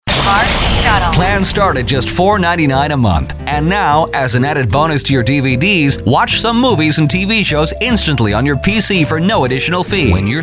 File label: Commercial